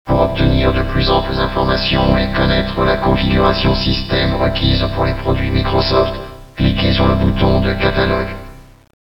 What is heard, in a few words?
vocoderized voice